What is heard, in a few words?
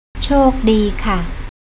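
"chok dee", Thai, female; travel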